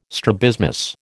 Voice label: "strabismus", American